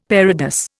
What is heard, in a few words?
"parados", Indian; Dictionary